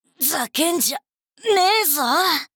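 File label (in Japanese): 「ざけんじゃねぇぞ……」, 女の子 オラオラ系少女 ダメージ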